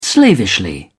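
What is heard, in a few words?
"slavishly", British English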